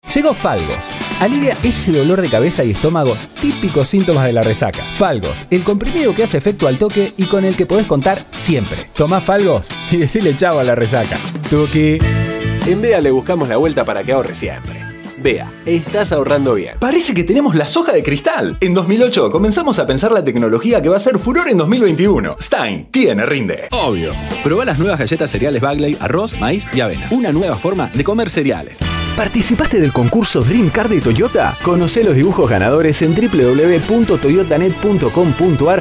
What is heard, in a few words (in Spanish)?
comerciales tono promos